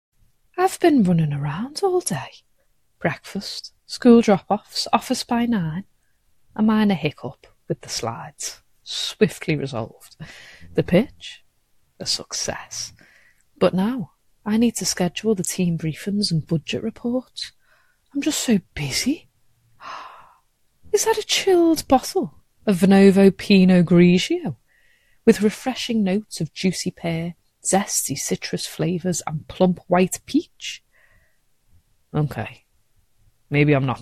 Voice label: Commercials, liverpool